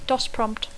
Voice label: "DOS prompt", English; Dacco

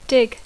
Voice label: "dig", English; Dacco

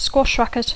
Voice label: "squash racket", English; Dacco

